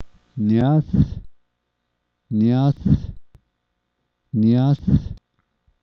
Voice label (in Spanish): antier, Zapoteco San Pablo Villa de Mitla; adverbios; Vocabulary; sta maria albarradas